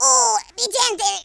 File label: "ouch", French; speech